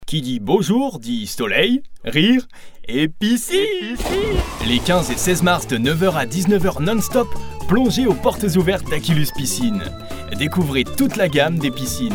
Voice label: Voix personnage 2nd degré puis Ton complice bien articulé

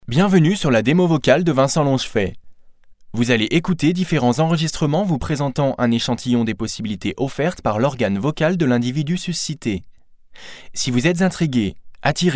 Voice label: Voix Neutre Ton mécanique Débit lent et distinct

